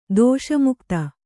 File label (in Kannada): "ದೋಷಮುಕ್ತ", Kannada